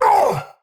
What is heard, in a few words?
Pain; Warframe; Tau; Dax; Knight